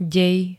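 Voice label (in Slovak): "dej", Slovak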